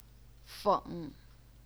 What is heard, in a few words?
"feng3", chinese pinyin